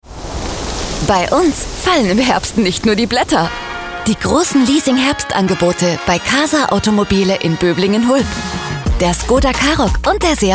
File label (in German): Funkspot; Casa Automobile